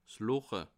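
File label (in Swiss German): "loche", Bas Rhin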